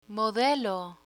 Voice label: "modelo", Spanish